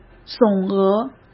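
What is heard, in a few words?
"总额", Mandarin Chinese; hsk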